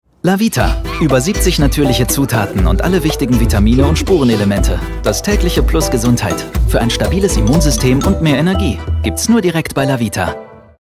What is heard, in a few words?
commercial; lavita